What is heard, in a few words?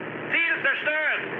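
German bomb success mood high, speech; War Thunder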